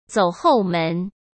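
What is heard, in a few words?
"走后门", Mandarin Chinese; hsk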